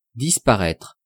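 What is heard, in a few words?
"disparaitre", French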